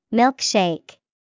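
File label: milk shake, English vocabulary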